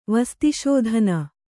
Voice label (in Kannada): "ವಸ್ತಿಶೋಧನ", kannada